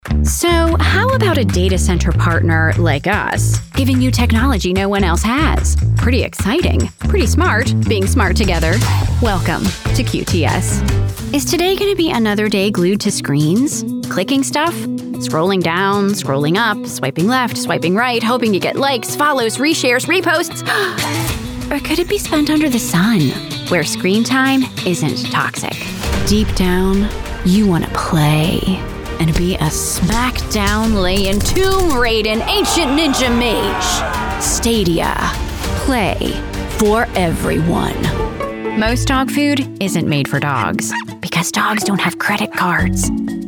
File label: COMMERCIAL